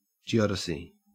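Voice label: "geodesy", Australian English; pronunciations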